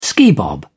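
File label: "skibob", British English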